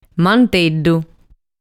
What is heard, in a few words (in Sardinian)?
"Manteddu", sardu